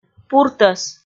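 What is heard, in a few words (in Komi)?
"пуртӧс", Komi, female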